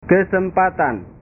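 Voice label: "kesempatan", Indonesian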